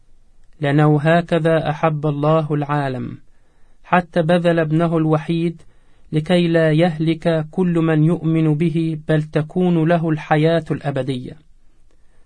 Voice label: arabic audio john 3-16